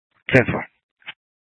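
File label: Sheriff, Parks, Sheriff radio scanner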